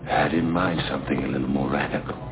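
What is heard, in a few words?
"More radical", speech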